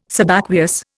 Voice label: "Subaqueous", Indian